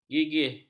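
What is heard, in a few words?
"gigih", Malay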